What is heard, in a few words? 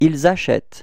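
"acheter ils", French; frans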